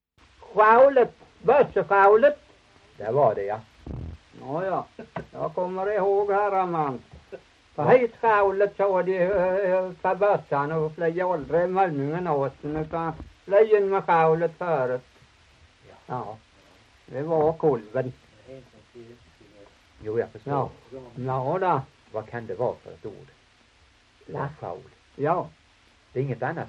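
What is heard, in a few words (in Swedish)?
Torhamn Blekinge, Svenska sagor dialekter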